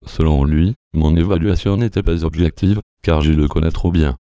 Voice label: synth